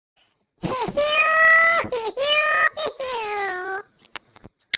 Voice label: Chipmunk

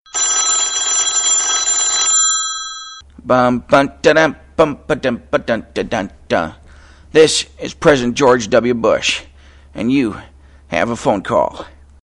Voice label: Phone Call; Bush

